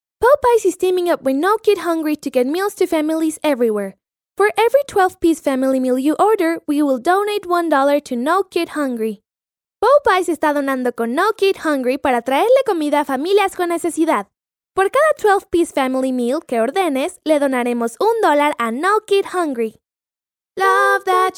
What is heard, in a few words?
english voiceover spanish latam accent; Recordings of children voiceovers